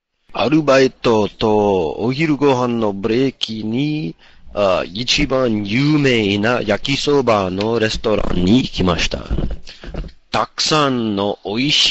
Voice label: Japanese Story Narration